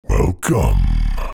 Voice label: game character demon vocal welcome; esmteam

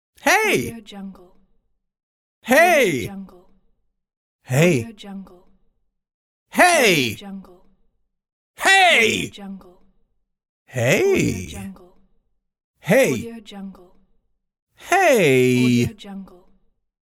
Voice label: man saying hey; applehillstudios

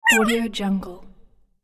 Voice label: tiny elf noise

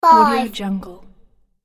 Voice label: "five", boy

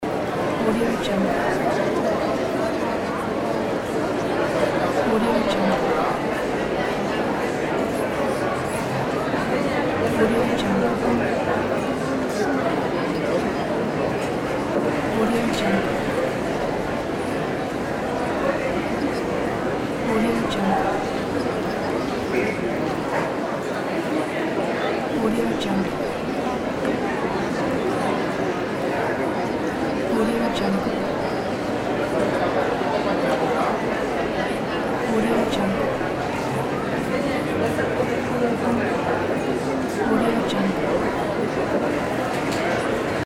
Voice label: people talking

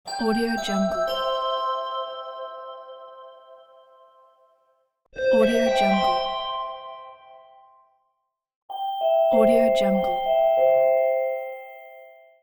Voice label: airport announcement

